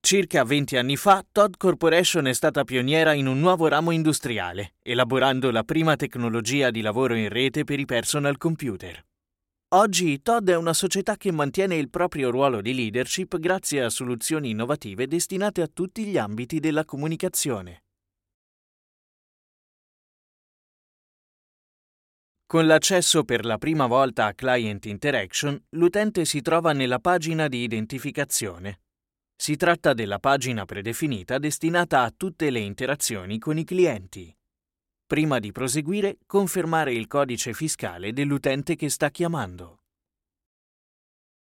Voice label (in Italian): voiceover italia